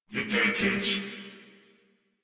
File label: Patrol Crewmen, Battle Barks; Dialog; Warframe; Corpus Crewmen; Crewman C